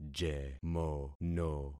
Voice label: "jemono", concat syllables; Affixing experiment